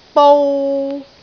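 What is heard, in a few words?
"bou3", Cantonese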